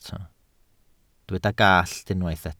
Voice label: "gallt", welsh